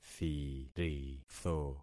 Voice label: "thiritho", concat syllables; Affixing experiment